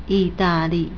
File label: "yidali", Chinese; Italy = Yi4-da4-li4